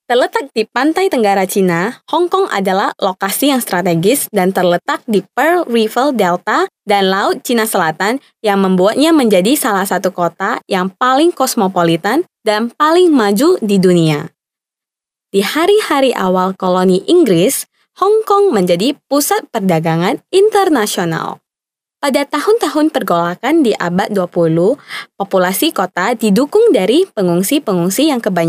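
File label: INDO female corporate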